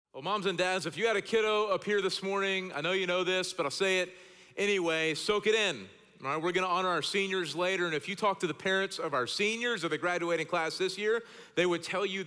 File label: Sermon English